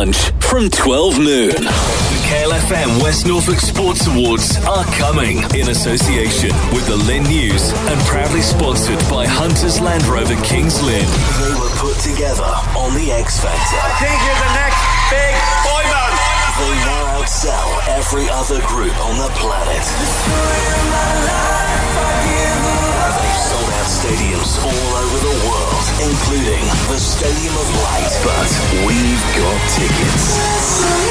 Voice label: station imaging commercial radio

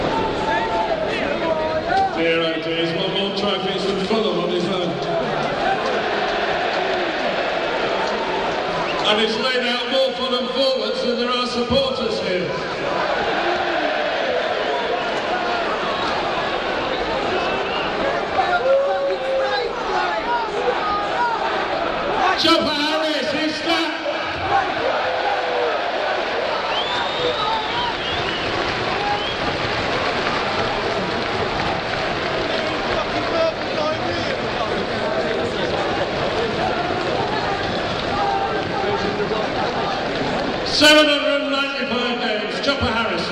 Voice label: stadium announcer half time; chelsea v fulham

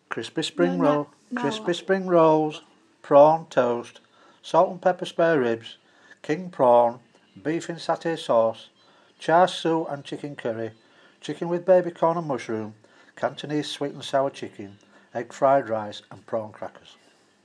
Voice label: chinese

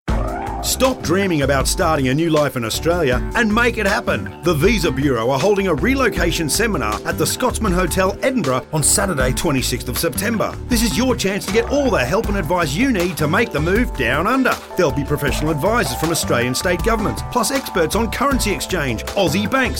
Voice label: radio advertisement; visa bureau; radio airtime media

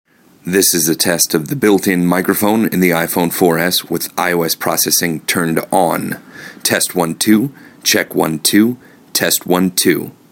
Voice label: processing agc turned on for iphone built in mic

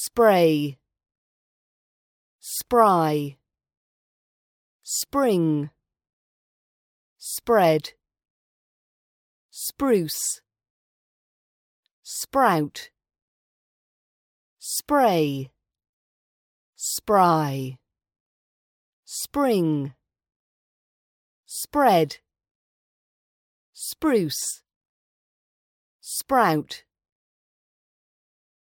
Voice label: words british accent pronunciation practice

